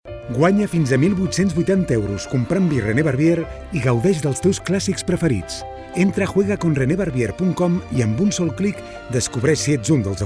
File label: male catalan comercial; ideasonora